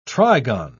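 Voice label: "trigon", British English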